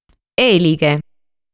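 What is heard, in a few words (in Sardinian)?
"Èlighe", Sardinian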